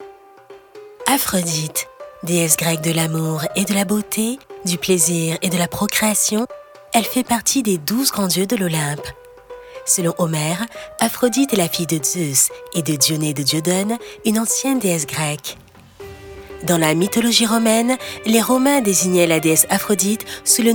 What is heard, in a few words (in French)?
voix de narration captivante légèrement suave, voix off; APHRODITE